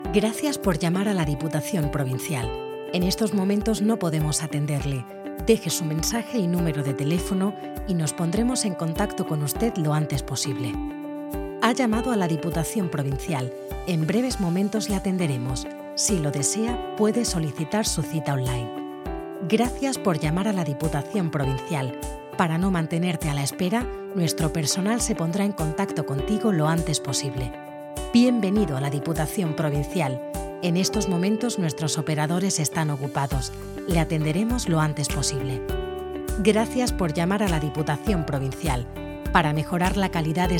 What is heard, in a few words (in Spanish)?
Locucion Centralita con musica